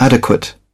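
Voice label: "adequate", British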